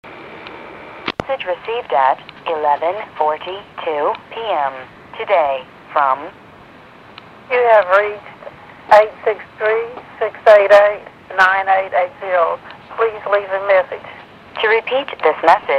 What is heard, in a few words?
voicemail greeting